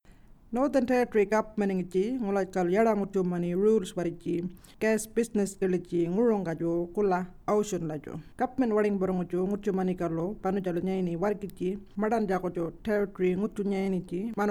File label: warlpiri; onshore gas industry activities